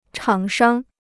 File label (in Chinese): "厂商", Chinese